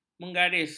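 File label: "menggaris", Malay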